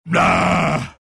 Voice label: tiny anger; dota